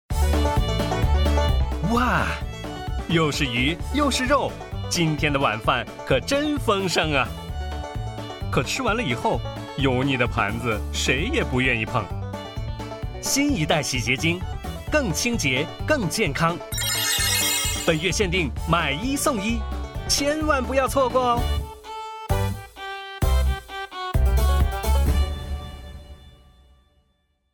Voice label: foreigner